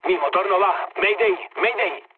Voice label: damaged engine, mood low, speech; War Thunder